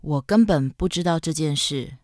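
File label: mandarin tw, Chinese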